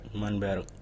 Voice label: "manberrk", Kun-wok; savanna forest